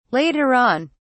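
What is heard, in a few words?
"later on", British English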